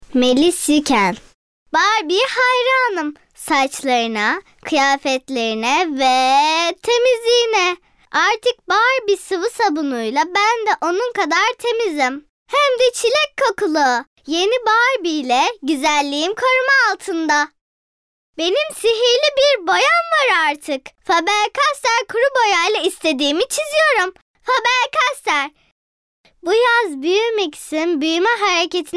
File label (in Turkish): COCUK